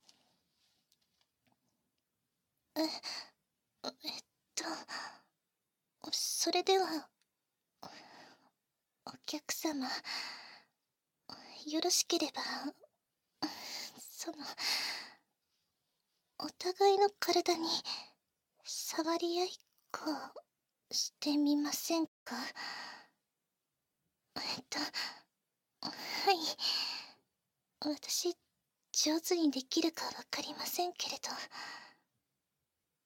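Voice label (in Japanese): 囁き（バイノーラルマイク収録 効果音なし）; 囁きいじりあいっこ+６９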